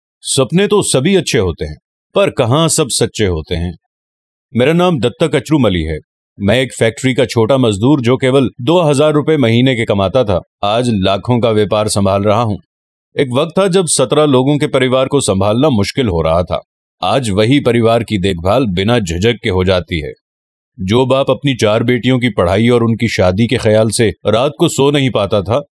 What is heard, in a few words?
Sample VO hindi